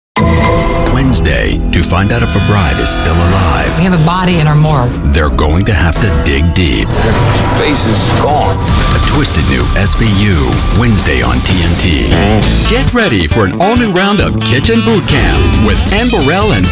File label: Promo